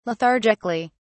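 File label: "lethargically", British English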